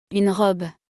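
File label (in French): "Une robe", French; vocabulaire; le lexique de l'habillement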